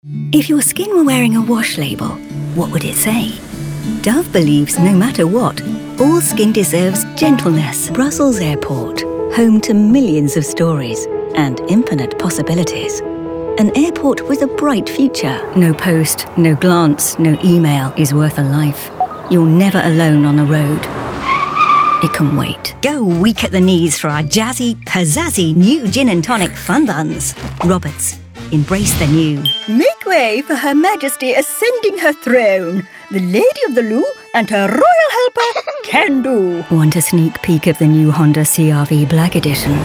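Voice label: uk commercial, British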